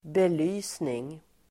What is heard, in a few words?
"belysning", Swedish